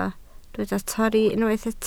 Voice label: "torri", Welsh